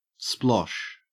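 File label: "splosh", Australian English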